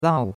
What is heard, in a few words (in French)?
"lao4", chinois; Cours-de-Chinois